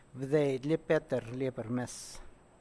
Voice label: Petra gave the book to the teacher, San Lucas Quiaviní Zapotec